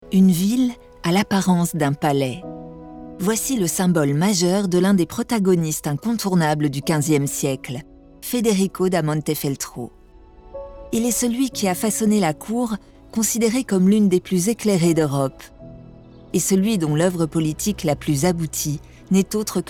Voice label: Narration; Urbino la cite ideale

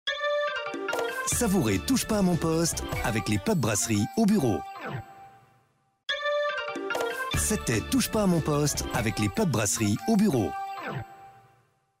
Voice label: energique Billboard; TouchePasaMonPoste

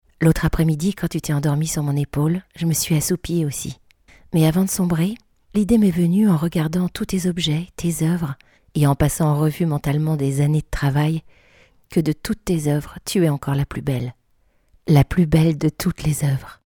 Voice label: livre audio; Just Kids

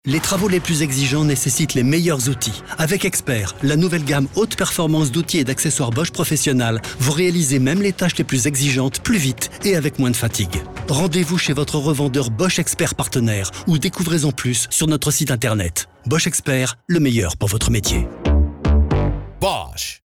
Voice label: RADIO, voix-off; BOSCH Expert

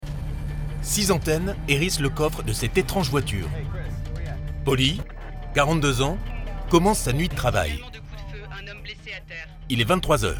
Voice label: Voix off

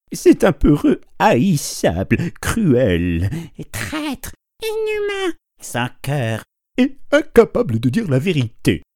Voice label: Une histoire du clown 3 voix

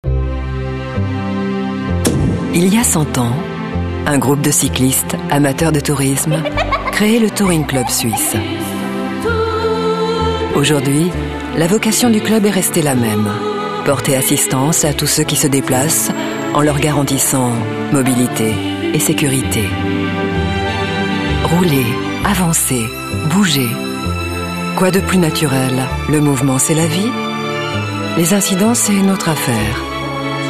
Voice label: pub TV; Touring Club Suisse

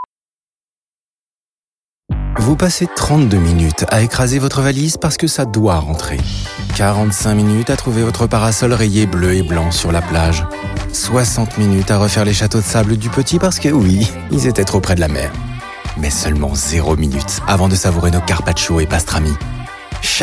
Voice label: H FR PUB; CHARAL

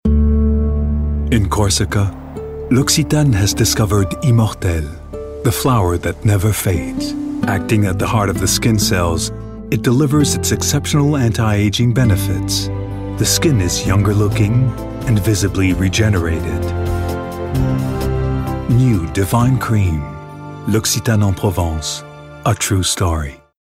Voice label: US raffiné délicat voix off voice over; LOccitane

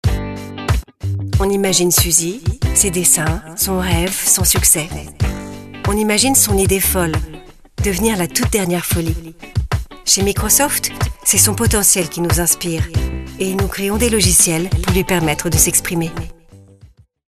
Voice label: Suggestif Attirant; Microsoft

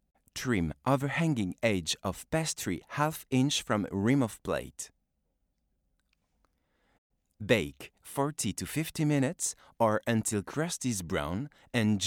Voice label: Apple pie avec accent

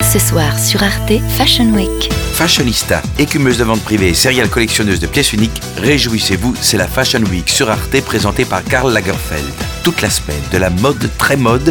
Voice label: Comedie; Fashion Week Lagerfeld Confidentiel

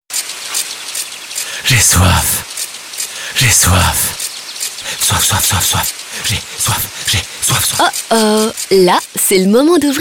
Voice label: complice souriante

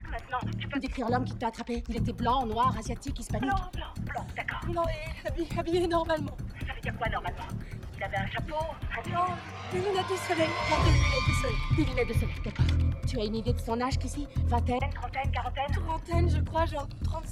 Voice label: doublage; Halle Berry; The call

